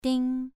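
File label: "ding" Mandarin, second tone, pronunciation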